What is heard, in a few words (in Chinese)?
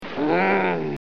人物-愤怒